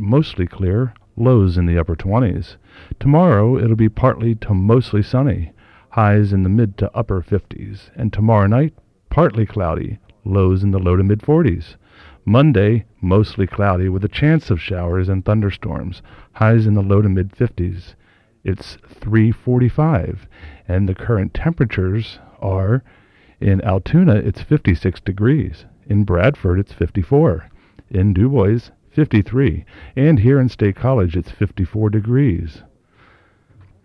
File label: Weather Forecast